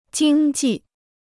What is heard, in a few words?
"经济", Chinese